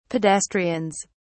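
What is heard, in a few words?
"pedestrians", English, British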